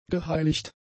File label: "geheiligt", German; adjectives